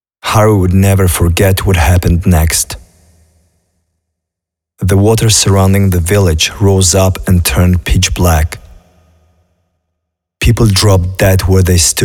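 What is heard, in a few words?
Voices VO English; apocalipsis 2018 gamerip pc linux macos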